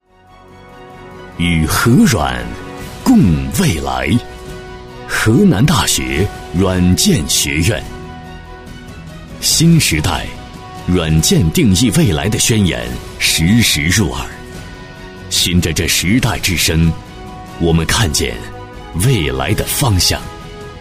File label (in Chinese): 男 学校专题片 大气磁性; 高端品质; 大气浑厚